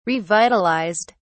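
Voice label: "revitalised", British English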